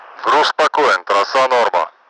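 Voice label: cargo ropes normal, Russian; Mi-8MT; DCS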